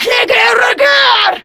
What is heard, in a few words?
Player Spotted, Grineer Female